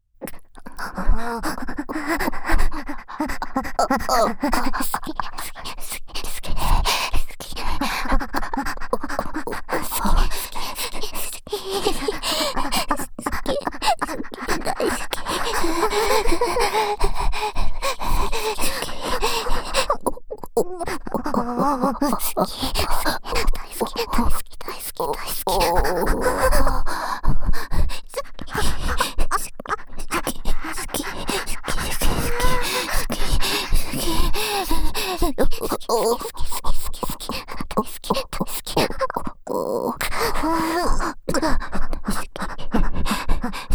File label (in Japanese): W密着耳元囁き好き好き連呼淫紋共有エッチループトラック, ASMR